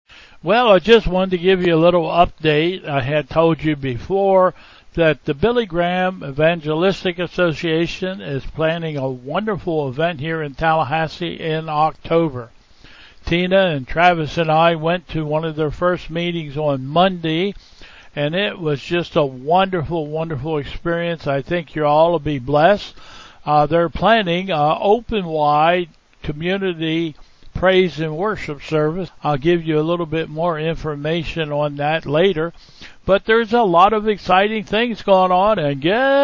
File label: Welcome and Announcements